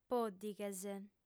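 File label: "pòddighes", Sardinian, female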